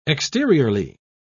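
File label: "exteriorly", British English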